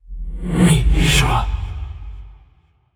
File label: in pain; aragami